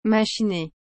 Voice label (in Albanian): "machiner", frengjisht